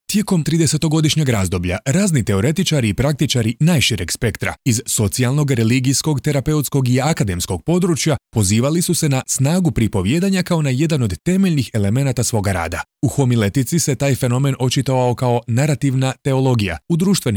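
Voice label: Croatian, male, narration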